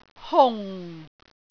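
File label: "hung4", Cantonese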